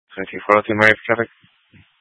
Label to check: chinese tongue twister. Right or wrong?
Wrong